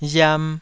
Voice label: "riam2", taiwanese